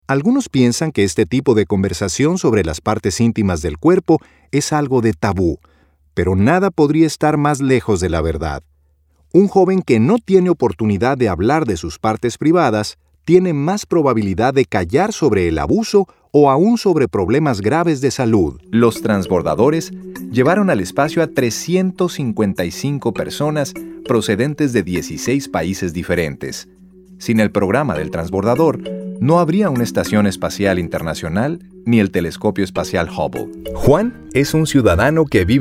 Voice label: e-Learning Various Styles